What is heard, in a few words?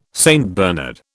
"St Bernard", British